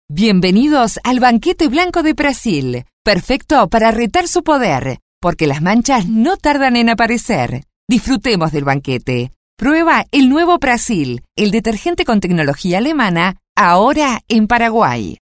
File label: locutora uruguaya uruguayan voiceover